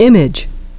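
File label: "image", English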